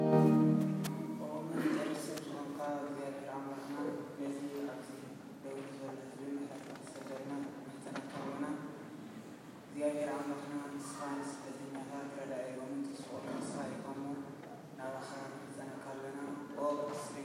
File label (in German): Grussworte auf Tigrinya; Wie sieht Vielfalt an rel Orten aus